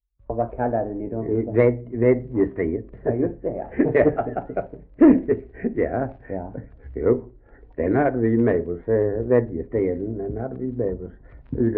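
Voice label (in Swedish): Ausås Skåne, Svenska sagor dialekter; Folkminnen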